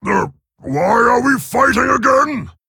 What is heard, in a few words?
Male Orc greetings; MIRROR GREETINGS